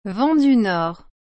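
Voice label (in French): "vent du nord", French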